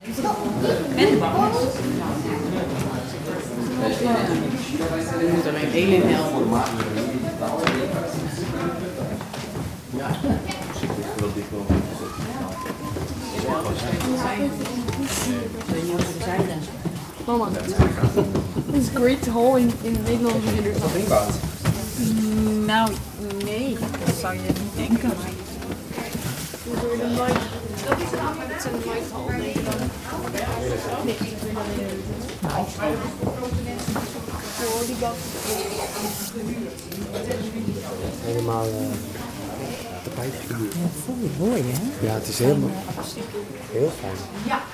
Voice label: Dutch People Talking During Guided Tour In A Castle